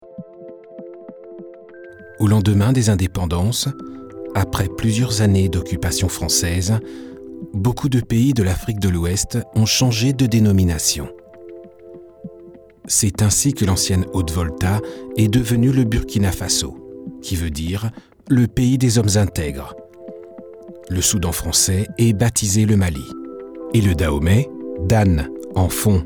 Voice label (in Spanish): locutor nativo francés